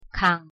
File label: "강", Korean